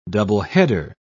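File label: "doubleheader", British English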